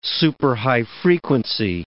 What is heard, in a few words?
"superhigh frequency", English word